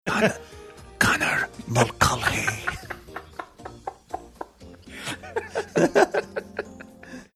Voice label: whispering